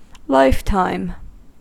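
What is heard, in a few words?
"lifetime", US English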